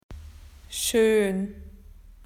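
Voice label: "beautiful", Australian English; PictureDictionary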